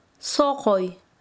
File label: "chupar", Quechua Chanka